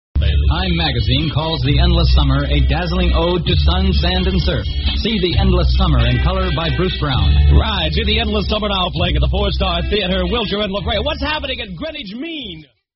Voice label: movie promo; endless summer; psychedelic promos and radio spots

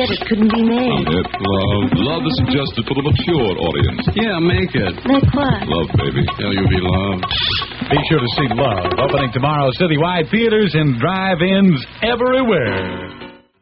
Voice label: movie promo, radio spots; l u v; psychedelic promos and radio spots

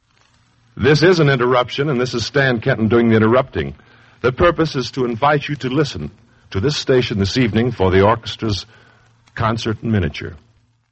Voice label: promos for concert in miniature

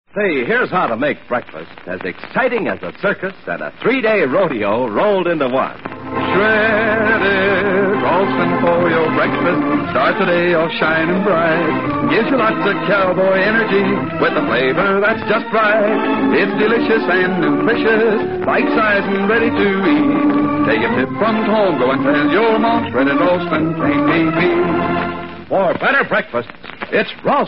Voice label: vintage commercials rolston cereal

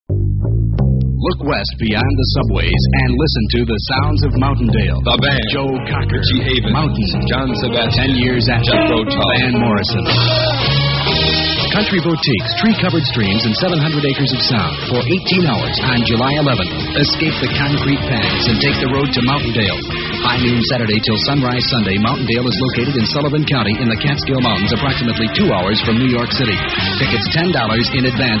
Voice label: festival concert promo, radio spots; mountaindale; psychedelic promos and radio spots

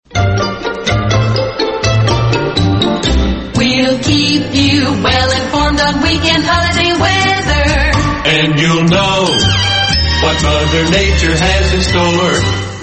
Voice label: australian otr promos and idents, weather

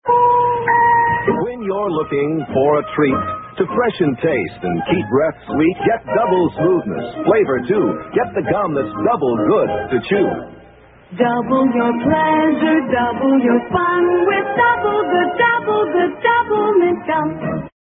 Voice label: vintage commercials gum; doublemint

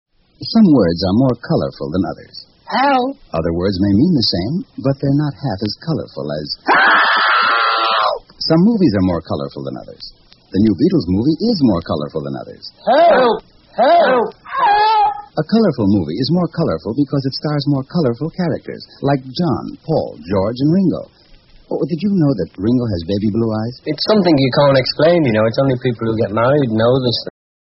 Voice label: spot, radio spots; psychedelic promos and radio spots

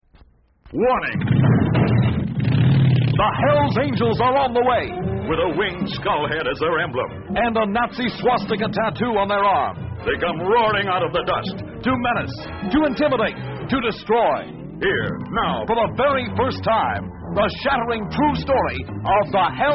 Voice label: movie promo; hells angels on wheels; psychedelic promos and radio spots

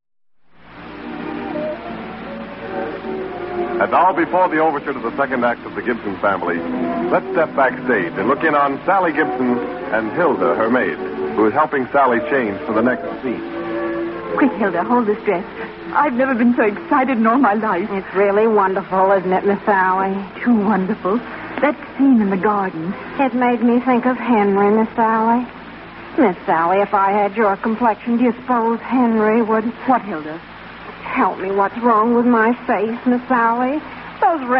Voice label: vintage commercials soap; ivory

